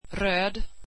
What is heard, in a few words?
swedish red